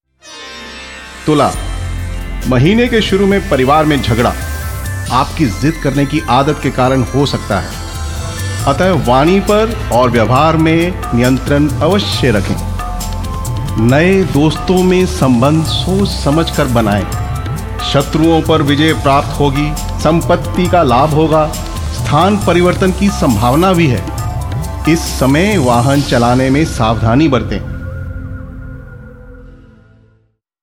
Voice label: RADIO BROAD CASTING ASTROLOGER HINDI

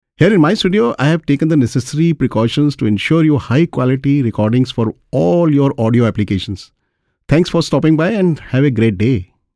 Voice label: E-Learning English

